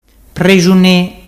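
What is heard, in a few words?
"prexune", Ligurian dialect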